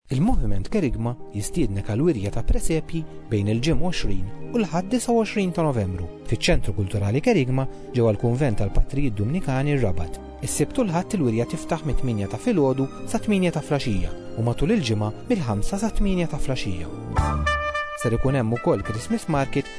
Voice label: PROMO; WIRJA 2015; MILIED; wirja presepji u christmas market